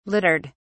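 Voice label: "littered", British English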